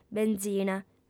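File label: "bentzina", female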